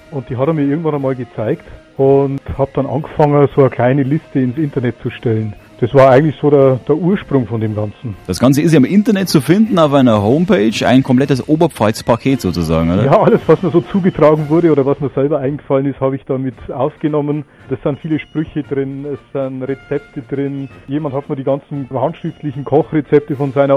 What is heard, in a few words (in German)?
Mundart